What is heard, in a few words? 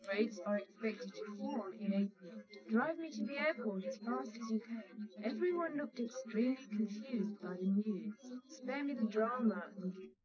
female, 130ms, 20cm